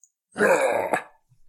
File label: guard male; SyrinScape; Waterdeep